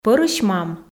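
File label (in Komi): "пӧрысь мам", Komi, female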